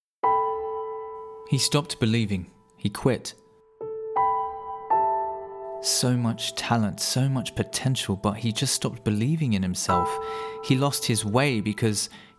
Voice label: UK sad